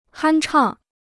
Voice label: "酣畅", Chinese